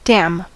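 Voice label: "damn", American English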